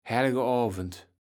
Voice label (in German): "Hellegovend", Platt